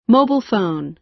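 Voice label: "mobile phone", American English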